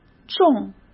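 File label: "重", Mandarin Chinese; hsk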